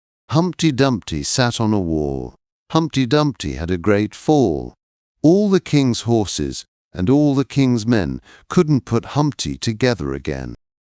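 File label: male British, classic rhymes; Humpty Dumpty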